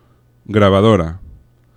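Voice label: "grabadora", Spanish; laboratori fonetica; paraules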